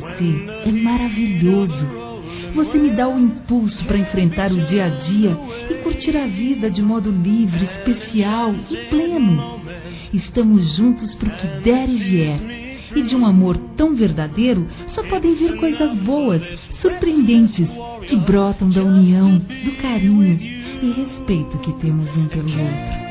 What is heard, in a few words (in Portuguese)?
Feliz ao seu Lado Feminino, Telemensagens Românticas